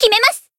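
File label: finish, Japanese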